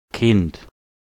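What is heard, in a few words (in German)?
"kind", German; klettres; tdeedu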